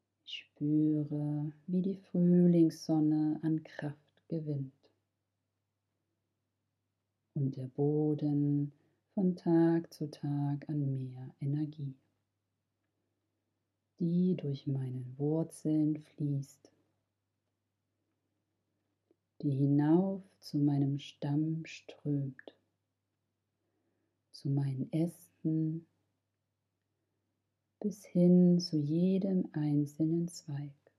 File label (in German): Hoerprobe Baummeditation; achtsame Walderlebnisse